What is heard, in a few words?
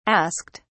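"asked", English, British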